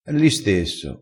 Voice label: "l istesso", vocabolario dialettale

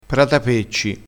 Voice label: "pratapecci", crespinese

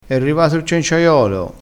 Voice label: "cenciaiolo", crespinese

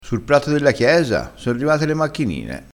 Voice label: "macchinine", crespinese

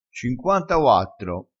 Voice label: "cinquantauattro", crespinese

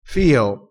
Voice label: "fio", crespinese

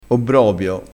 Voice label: "obbrobio", crespinese

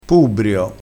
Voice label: "pubbrio", crespinese

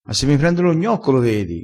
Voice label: "piglia lo gnocco", crespinese

